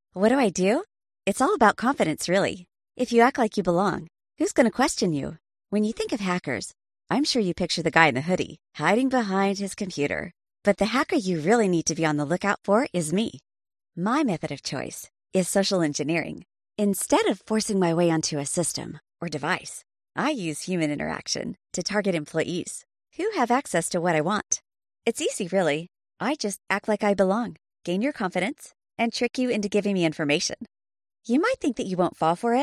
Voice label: English American narration